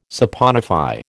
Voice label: "saponify", American